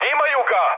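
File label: Serbian aircraft destroyed mood high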